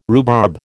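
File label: "rhubarb", American English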